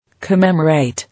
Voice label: "Commemorate", English

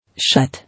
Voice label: "Shut", English

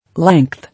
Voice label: "Length", English

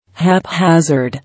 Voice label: "Haphazard", English

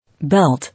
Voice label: "Belt", English

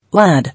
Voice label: "Lad", English

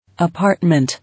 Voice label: "Apartment", English

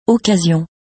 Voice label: "Occasion", English

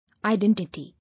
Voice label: "Identity", English

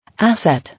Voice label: "Asset", English

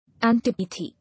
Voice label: "Antipathy", English

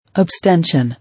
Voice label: "Abstention", English

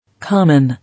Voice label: "Common", English

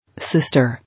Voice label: "Sister", English

